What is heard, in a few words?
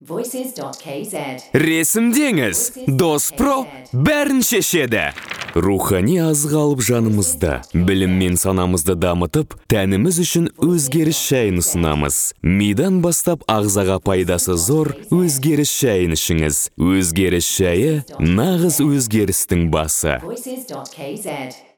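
Kazakh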